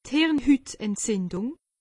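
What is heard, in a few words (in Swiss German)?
"hirnhutentzindung", Alsatian; medecine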